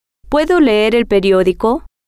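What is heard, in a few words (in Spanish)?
puedo Leer Periodico, spanish, female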